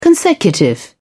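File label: "consecutive", British English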